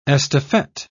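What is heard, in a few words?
"estafette", British English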